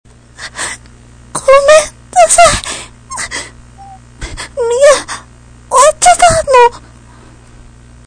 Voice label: sorry w